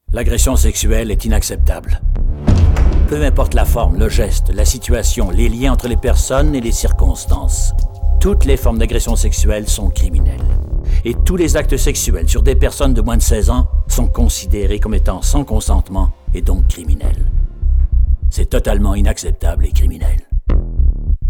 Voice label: VOIX pub Agression; Narration

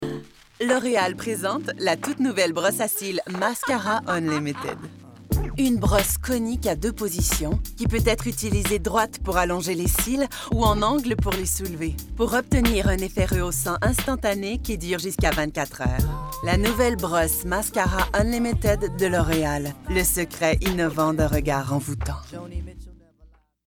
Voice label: Demo de voix; Voix publicitaire - L'Oréal

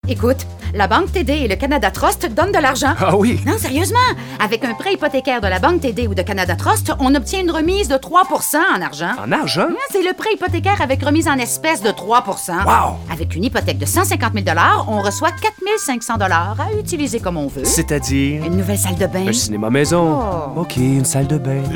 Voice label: PUB voix personnage remise en argent, PUB/Voix enjouée, qui veut convaincre, débit rapide, situation de couple; TD Canada trust

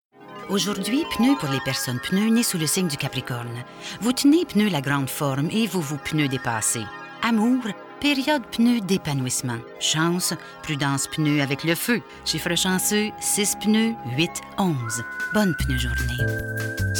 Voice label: PUB voix personnage New age, PUB/Voix humoristique, style «New Age»; Goodwrench

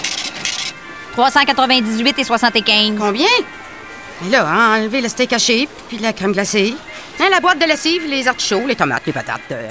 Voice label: Voix cliente, découragée, en mode solution, PUB; PUB/Voix cliente, découragée, en mode solution; Publisac

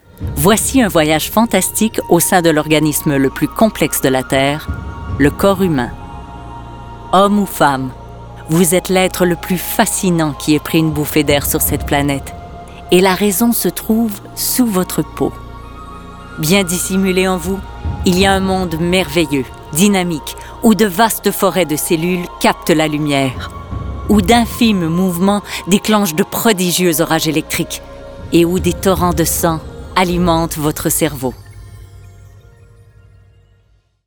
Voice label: Narration F

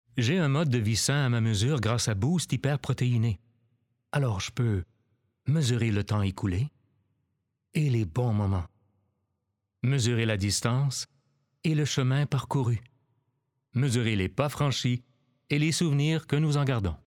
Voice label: Demo voix

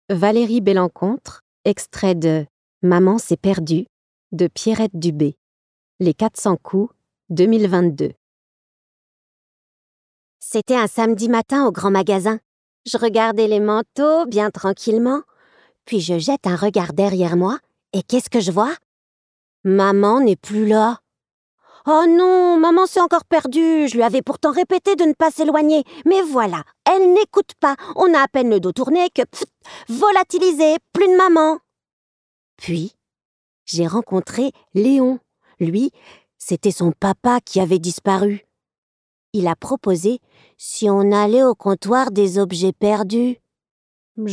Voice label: Livre audio; Maman s'est perdue

